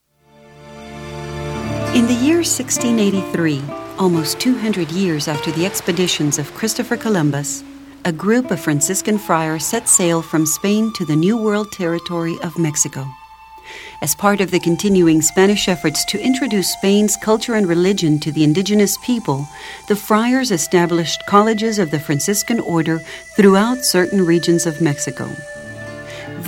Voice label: english females

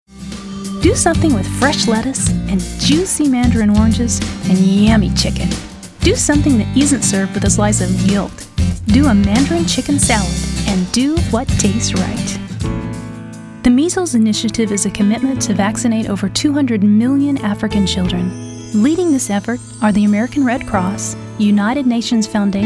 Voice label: English, english females

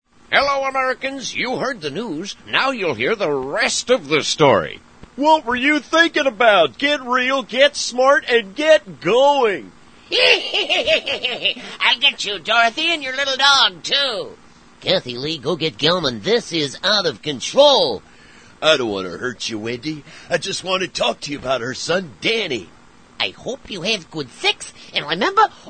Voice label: English, english males

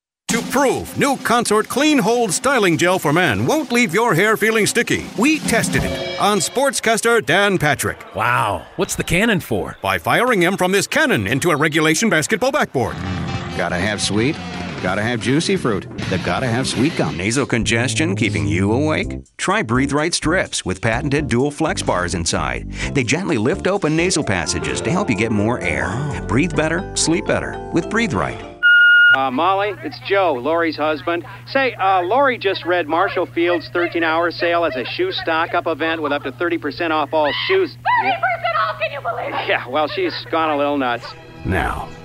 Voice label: English, english males

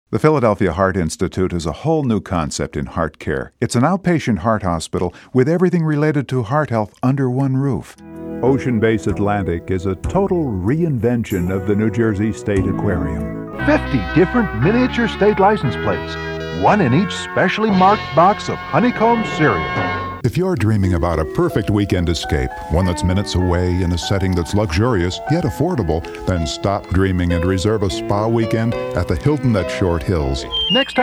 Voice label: English, english males

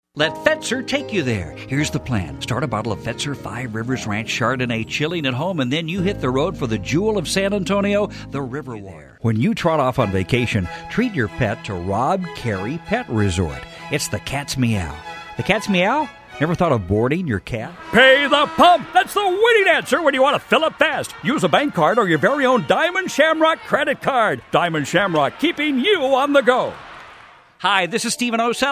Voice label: English, english males

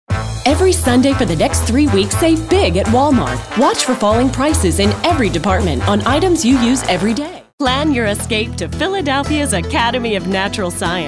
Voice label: english females

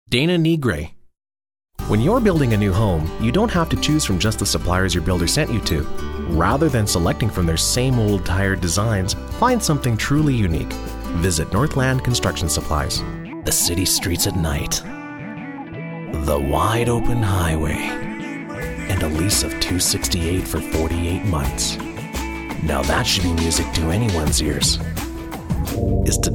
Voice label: english males

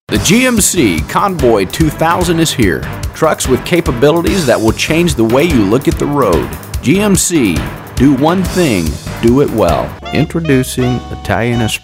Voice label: english males

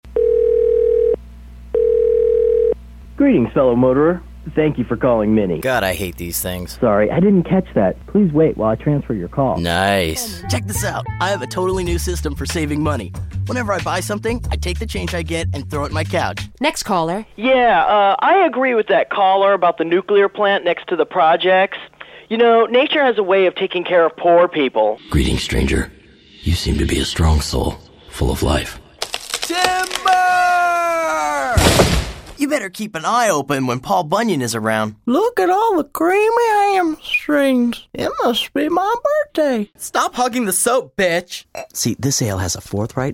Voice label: english males

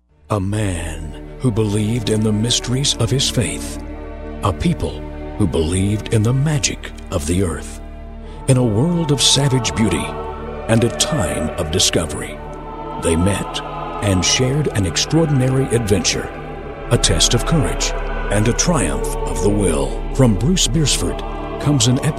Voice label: English, english males

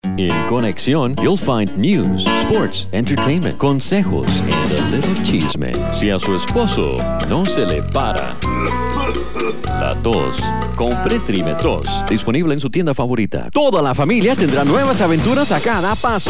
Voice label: Spanish, males

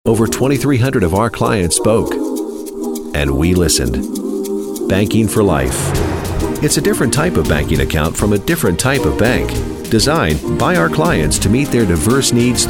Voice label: English, english males

